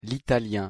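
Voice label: "l'italien", French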